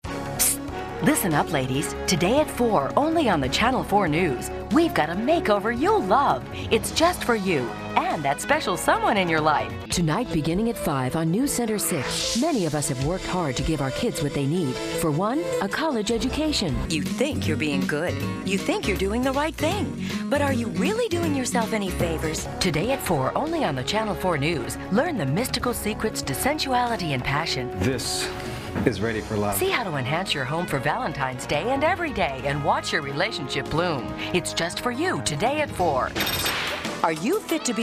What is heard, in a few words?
promo